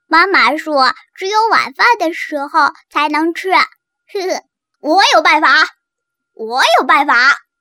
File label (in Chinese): 女 男孩, 童声